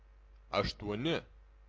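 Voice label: "astuoni", Lithuanian, male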